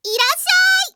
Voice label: logon, Anime Girls; Windows; Bing Japan; Nana